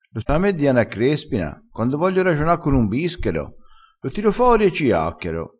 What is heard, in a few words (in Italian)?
"quando voglio", vocabolario dialettale